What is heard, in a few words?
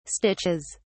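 "stitches", English, British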